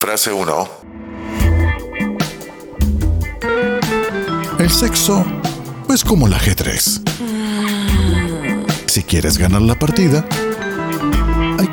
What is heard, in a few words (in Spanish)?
Locutor; Hot Tv